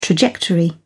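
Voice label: "trajectory", British English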